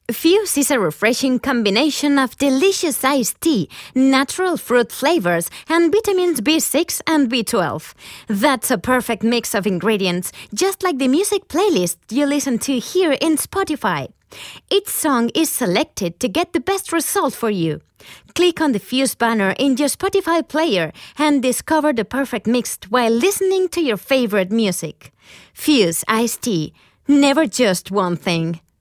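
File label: Spanish English commercial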